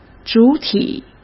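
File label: "主体", Mandarin Chinese; chinese-flashcards; hsk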